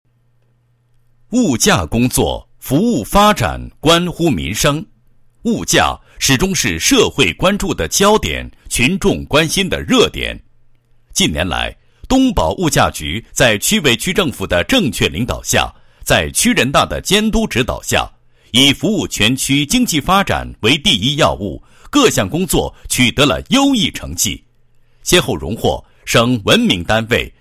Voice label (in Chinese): 男 工作汇报; 物价局人大工作评议整改情况